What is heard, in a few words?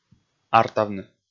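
"артавны", Komi, male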